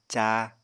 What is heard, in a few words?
"cáa", Apatani